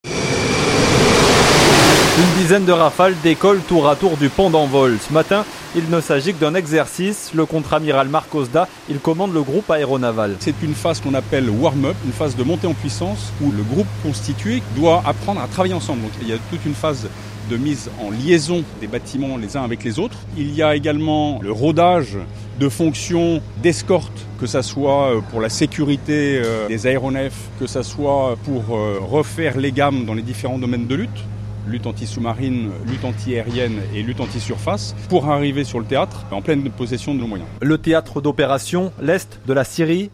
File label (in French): son reportage